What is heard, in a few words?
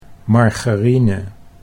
"margarine", Dutch